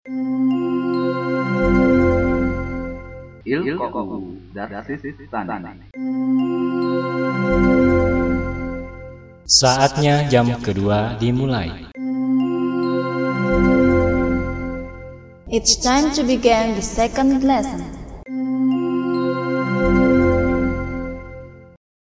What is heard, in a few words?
Arab Jam, bel sekolah; aplikasi bel sekolah